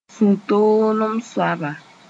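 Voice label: "sũ-toolem-soaba", Mooré; nervous person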